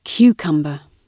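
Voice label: "cucumber" British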